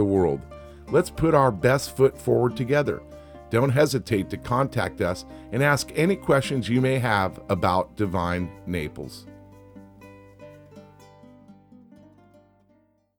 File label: local business landing real estate text and music only